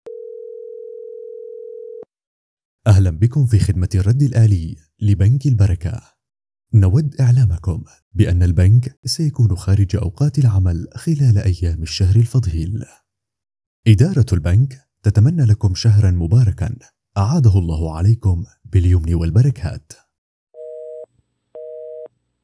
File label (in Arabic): تعليق صوتي